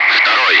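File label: Russian bright; Wingman; DCS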